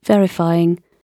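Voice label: "verifying", British English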